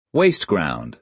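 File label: "wasteground", American English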